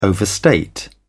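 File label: "overstate", English, British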